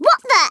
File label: what the, Scouser